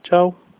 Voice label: goodbye, slovak